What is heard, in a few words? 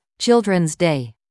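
"Childrens Day", English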